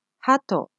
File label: "hato", Japanese